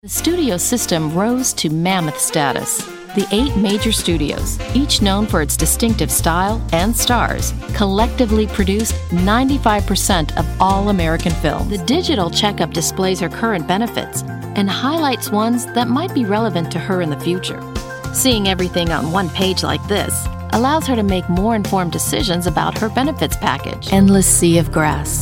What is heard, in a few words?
Narration